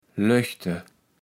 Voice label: "löchte", kaevels platt

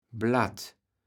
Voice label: "Blatt", kaevels platt

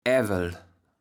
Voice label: "aewel", kaevels platt

